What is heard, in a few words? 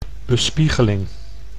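"bespiegeling", Dutch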